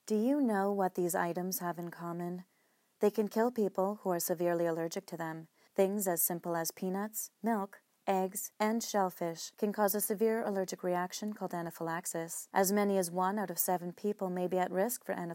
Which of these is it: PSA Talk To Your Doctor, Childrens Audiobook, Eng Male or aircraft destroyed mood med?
PSA Talk To Your Doctor